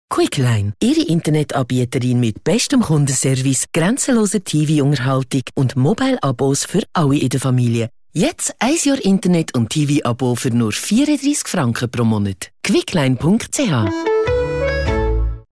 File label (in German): Radiospot; Virgin; QUICKLINE; virgin radio switzerland